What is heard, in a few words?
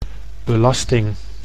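"belasting", Dutch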